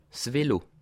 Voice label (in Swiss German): "velo", alsace